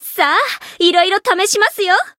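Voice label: Voice variable chant, Japanese; pom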